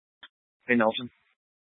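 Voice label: Sheriff Dispatch, scanner audio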